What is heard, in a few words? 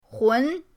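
"hun", Mandarin pinyin, second tone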